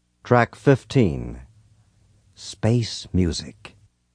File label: space music, zany sfx announcer